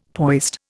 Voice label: "poised", Indian; Dictionary

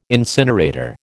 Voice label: "incinerator", American

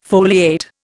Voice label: "foliate", Indian

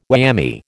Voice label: "whammy", American

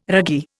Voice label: "ragee", Indian

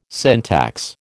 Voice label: "syntax", American

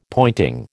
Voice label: "pointing", American

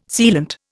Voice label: "sealant", Indian

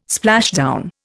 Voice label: "splashdown", Indian

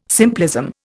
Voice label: "simplism", Indian

